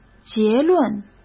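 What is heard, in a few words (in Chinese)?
"结论", Mandarin; chinese-flashcards; hsk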